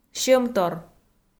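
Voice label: "сьӧмтор", Komi, female; kpv audio dict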